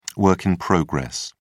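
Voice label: "work in progress", British English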